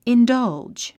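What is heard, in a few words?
"indulge", English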